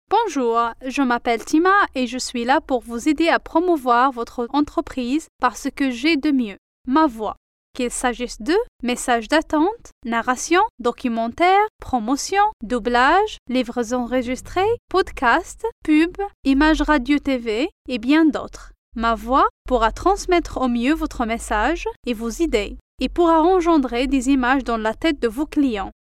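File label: French Morocco female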